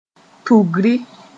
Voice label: "tugri", moore